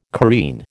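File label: "careen", American English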